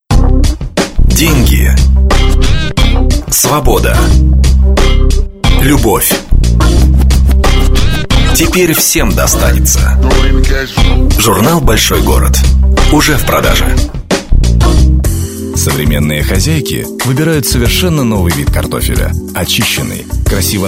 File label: diktor